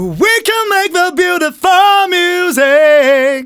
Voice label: Phrase Male; Vengeance Samples

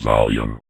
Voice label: Vocoder Words; Vengeance Samples

